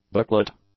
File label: "booklet", English